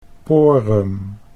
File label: "porum", Dutch